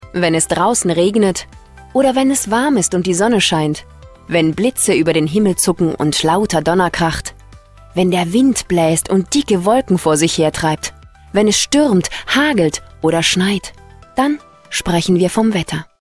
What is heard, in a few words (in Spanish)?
Aleman Alemania Femenina Adulta